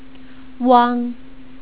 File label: "wang", Chinese